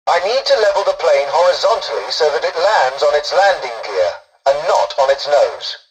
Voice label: tutorial dialogue, english; War Thunder

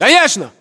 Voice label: response ack norank yes, battlechatter ru

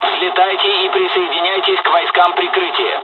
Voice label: take off, dialogs russian; War Thunder

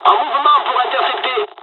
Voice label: dialog, dialogs french; midway; War Thunder

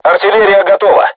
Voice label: voice message art charged, crew tanks russian

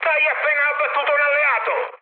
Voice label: player killed ally mood high, speech it; War Thunder

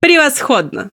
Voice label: Speech Quick Commands Good Game

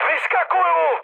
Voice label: bailout, speech cze, mood low; War Thunder

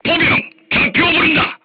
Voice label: voice message art command, korean

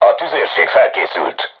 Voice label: voice message art charged, crew tanks hungarian

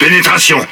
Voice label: Speech Hit Confirmation, FR; World Of Warships

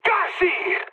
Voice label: bomb failure, mood high, speech; War Thunder

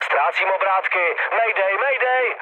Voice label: damaged engine, speech cze, mood low; War Thunder

